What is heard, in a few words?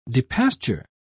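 "depasture", British English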